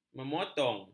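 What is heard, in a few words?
"memotong", Malay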